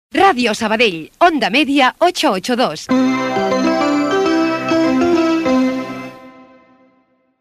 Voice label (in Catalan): Indicativo Radio